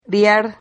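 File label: "riar", Spanish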